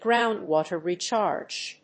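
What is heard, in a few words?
"groundwater recharge", English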